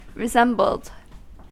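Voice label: "resembled", US English; pronunciations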